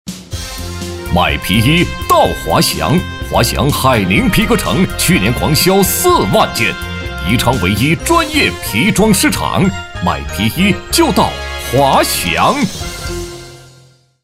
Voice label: 男100号-广告-皮装市场 激情 有力, 国语男声配音

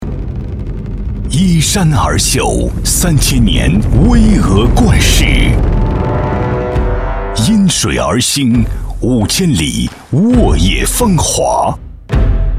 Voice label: 男 广告; 这里是四川全新上线片花

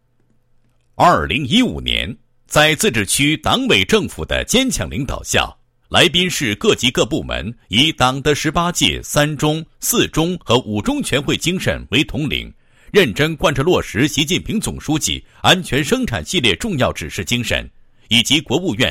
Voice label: 男 工作总结, 配音; 来宾市2015年度消防工作

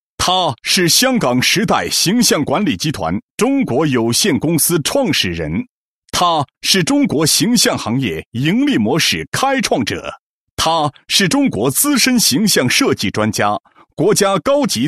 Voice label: 男, 人物介绍, 国语男声配音, 男高音; 形象设计专家

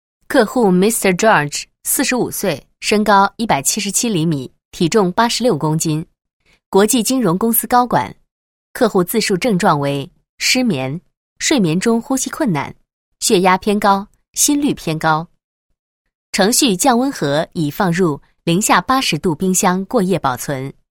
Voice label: 女 女科技感, 配音; 亚健康干预

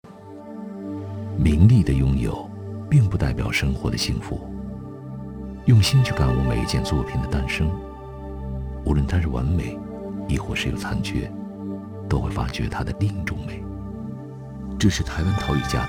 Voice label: 男 专题 台湾陶艺家 温情舒缓; 杜辅仁

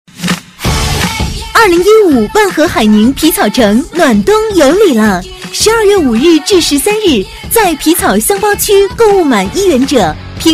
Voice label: 女, 门面促销, 国语女声; 海宁皮草

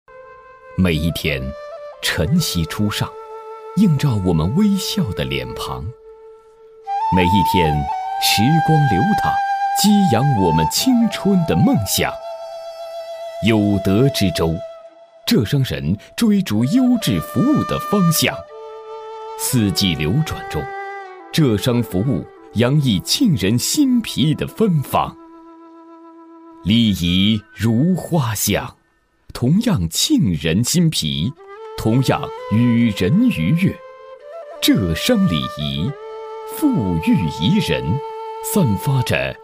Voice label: 国语男声配音, 专题; 闻香识浙商; 全能录音

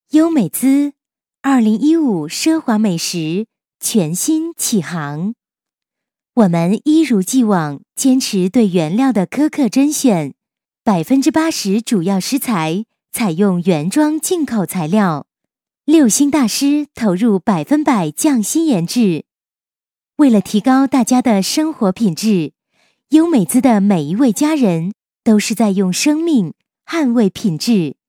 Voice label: 女53 广告, 国语女声配音; 优美滋; 省台女配

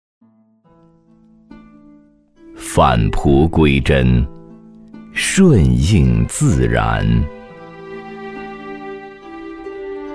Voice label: 男 中国风; 东方院子

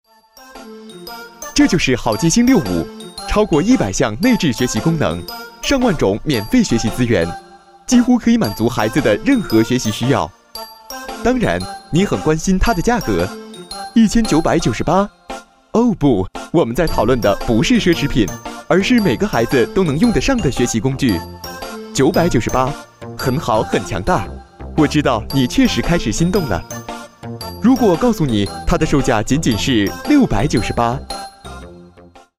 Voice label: 男-广告; 好记星

